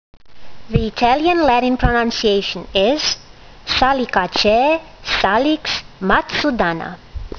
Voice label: latin; Salix matsudana